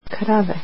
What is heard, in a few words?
"cows", Bosnian